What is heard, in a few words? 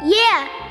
"yeah" kid; ooh ahh